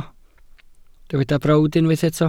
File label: "brawd", Welsh